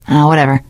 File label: you are welcome, teen girl; survivor voice